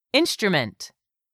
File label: "instrument", English; 3000 words